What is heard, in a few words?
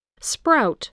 "sprout", voice